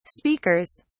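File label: "speakers", British English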